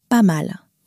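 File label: "pas mal", French, female; flashcards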